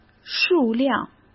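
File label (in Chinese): "数量", Mandarin Chinese; chinese-flashcards; hsk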